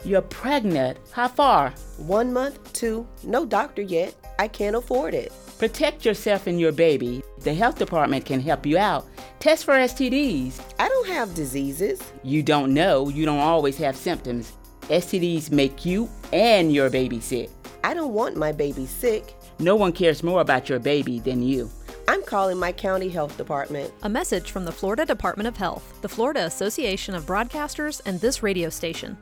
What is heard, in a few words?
radio psa; std